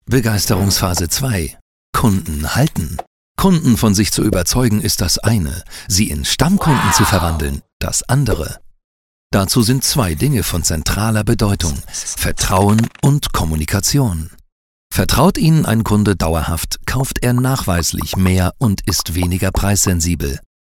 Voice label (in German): Dynamisch; Fremdtake